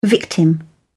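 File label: "victim", British English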